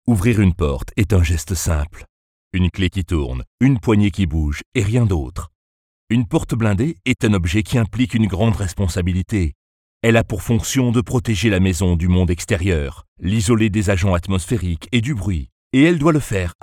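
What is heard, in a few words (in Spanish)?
corporativo, French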